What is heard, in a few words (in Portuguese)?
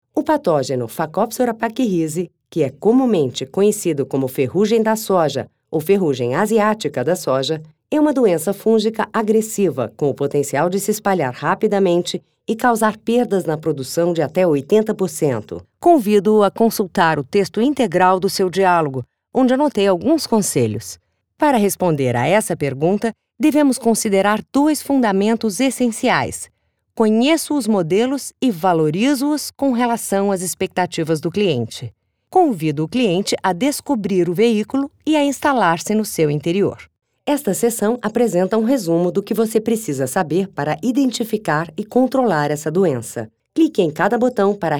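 Português - Brasileiro, e-learning, Feminino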